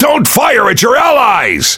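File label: Pirate Speech Friendly Fire Hit Alert, English pirate; World Of Warships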